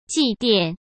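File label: "祭奠", Mandarin Chinese; chinese flashcards; hsk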